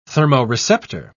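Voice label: "thermoreceptor", British English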